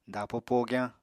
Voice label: "dapo pogyañ", Apatani

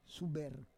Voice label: "suber", Roon

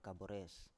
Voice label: "kabores", Biak

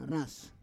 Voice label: "ras", Roon

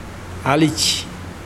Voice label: Mokilese beard

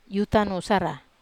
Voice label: "utanusara", Wamesa

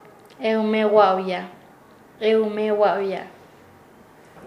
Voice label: Nasa Yuwe; hablar mal; paez

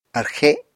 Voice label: "arxe", Apatani

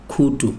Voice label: "ear", Chikunda

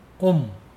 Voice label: "house", Mokilese

